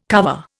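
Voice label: "cuvée", Indian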